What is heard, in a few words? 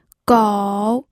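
"gou", Mandarin Chinese, third tone; pinyin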